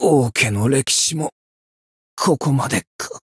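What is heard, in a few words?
Voice Japanese; claude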